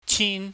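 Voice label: "chin", Cantonese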